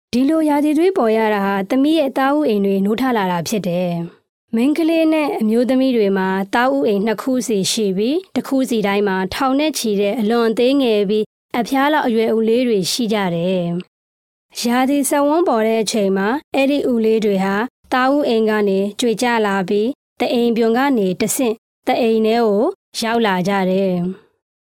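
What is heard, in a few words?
Burmese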